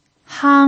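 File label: "hang" first tone, pinyin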